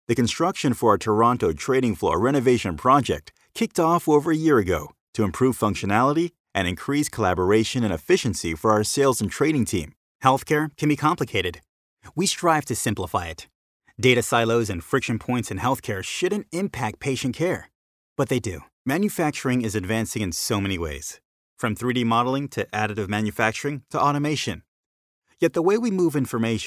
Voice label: corporate training video